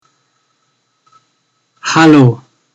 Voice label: Hello German

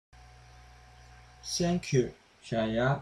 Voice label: Thank you China Shanghainese

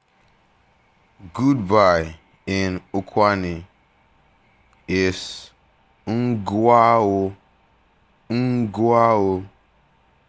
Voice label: Goodbye Ukwani

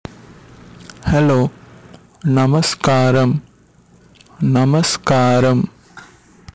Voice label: hello Indian Telugu

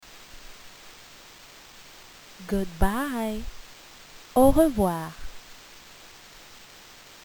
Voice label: Goodbye French

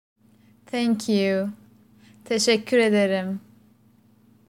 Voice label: thank you turkish

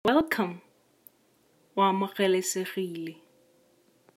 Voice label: welcome botswana

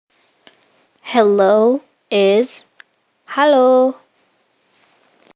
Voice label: Hello Indonesian

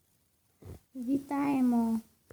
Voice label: Welcome Ukranian

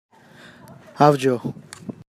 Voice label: Goodbye Gujarati

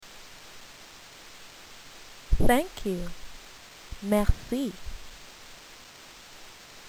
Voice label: Thank you French

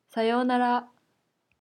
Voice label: Goodbye Japanese

